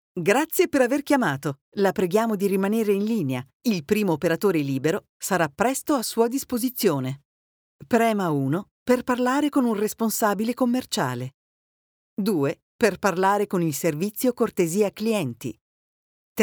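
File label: IVR, voice over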